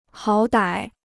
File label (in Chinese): "好歹", Chinese